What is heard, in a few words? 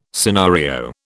"scenario", British